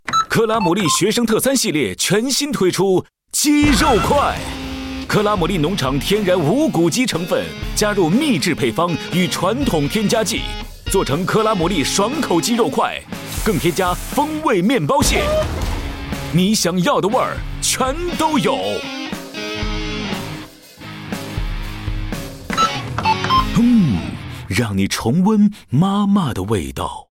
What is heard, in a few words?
Mandarin Ad Chicken; 双点校园/电台广告